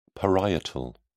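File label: "parietal", British English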